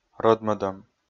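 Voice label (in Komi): "рӧдмӧдӧм", Komi, male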